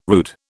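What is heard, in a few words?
"rood", British English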